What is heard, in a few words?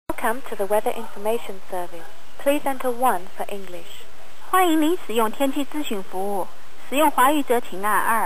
conversation, Telephone adaptor for SKYPE; digidock SP-4200; Although I can record it clearly for voice calls, the recording level of Skype calls seems to be softer.